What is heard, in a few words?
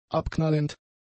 "abknallend", German; adjectives